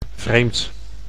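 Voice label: "vreemd", Dutch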